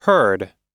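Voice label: "heard", US English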